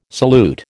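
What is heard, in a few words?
"salute", American English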